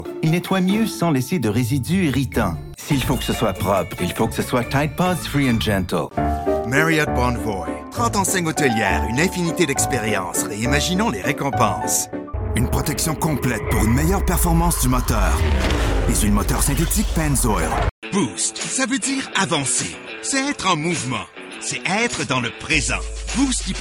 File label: canadian french